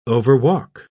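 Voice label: "overwalk", English, British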